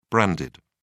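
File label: "branded", British English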